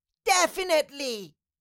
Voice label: Cartoon little child voice; definitely

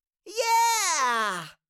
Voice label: "yeah", Cartoon little child voice

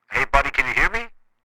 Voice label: Walkie talkie conversation can you hear me

